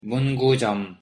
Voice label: "문구점", Korean; pronunciation